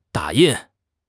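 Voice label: "da3 yin4", Chinese; HSK4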